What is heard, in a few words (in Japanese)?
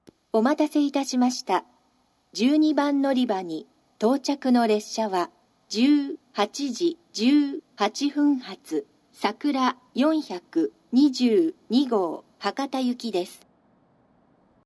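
新鳥栖駅 さくら422号 博多行き, 接近放送; 九州新幹線SIRIUS（シリウス）放送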